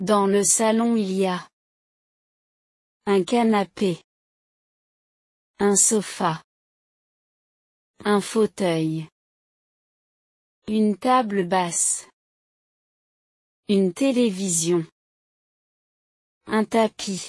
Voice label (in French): vocabulaire logement en francais prononciation